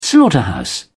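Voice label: "slaughterhouse", British English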